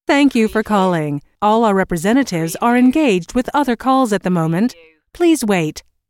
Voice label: US female phone prompt Thank you for calling - All our representatives are engaged with; All our representatives are engaged with other calls; lynne-publishing